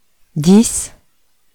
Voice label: numbers in French 10